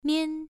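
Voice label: "mian", Mandarin Chinese syllable, first tone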